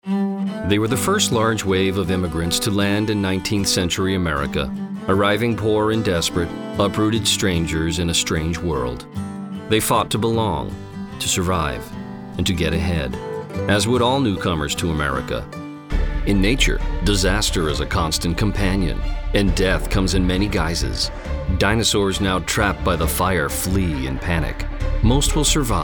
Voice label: Narration